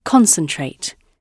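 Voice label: "concentrate", British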